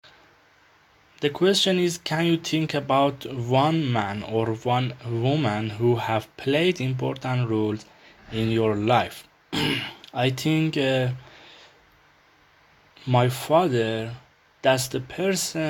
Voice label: speaking student answer